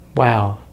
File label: "well", English; Consonants in American English; easypronunciation